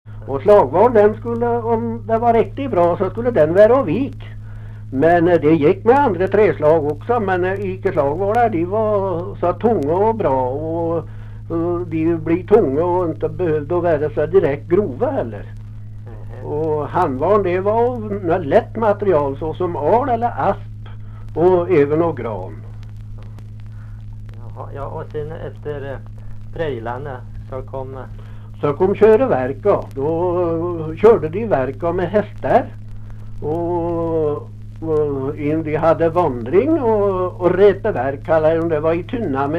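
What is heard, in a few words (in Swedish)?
Lerdal Dalsland, dialekter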